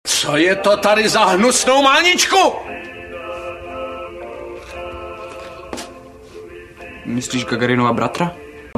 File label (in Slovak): sms hlásky cz